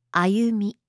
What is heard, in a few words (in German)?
"ayumi", japanische maedchennamen